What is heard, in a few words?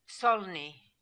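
"Solni", pronunciations; Fourth Way; Beelzebub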